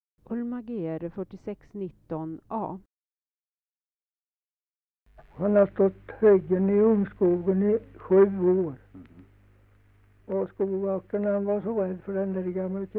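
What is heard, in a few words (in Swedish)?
Nysund Närke, dialekter, svenska sagor; Folkminnen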